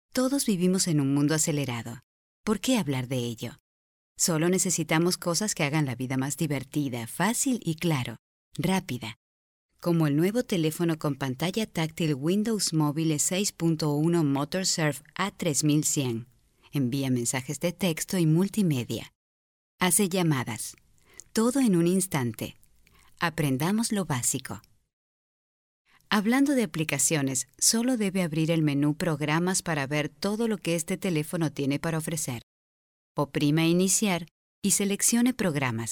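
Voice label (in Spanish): Advert Corporate Solemn; Anuncio Corporativo Solemne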